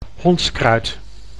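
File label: "hondskruid", Dutch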